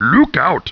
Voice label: annoyed; war2; orcs; basic orc voices; Look out!